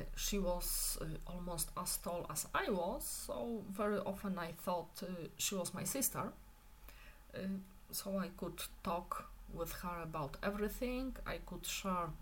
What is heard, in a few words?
speaking practice favorite toy